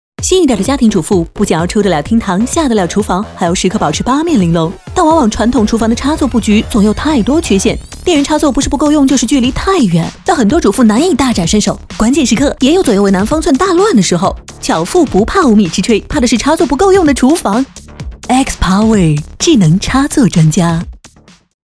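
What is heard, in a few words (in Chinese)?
女国大音-厨房智能插座专家; 万万没想到 1Xpower.厨房智能插座专家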